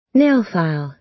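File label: "nail file", American English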